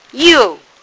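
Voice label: "you4", Mandarin Chinese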